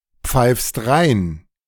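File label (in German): "pfeifst rein", German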